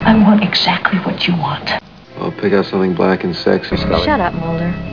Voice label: sexy

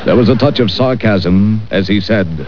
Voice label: sarcasm

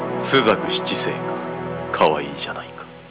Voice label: cute

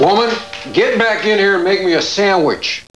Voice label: woman; Arcadia; X-Files; Mulder

